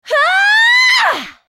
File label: ultimate effort